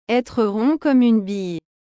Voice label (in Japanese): "être rond comme une bille", フランス語